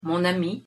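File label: pronunciation "mon ami", French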